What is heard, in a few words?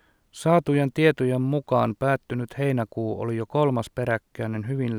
male